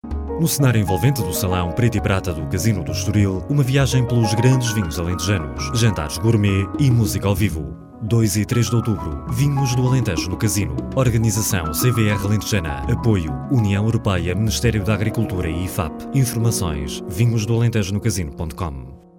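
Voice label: Portuguese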